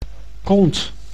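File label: "kont", Dutch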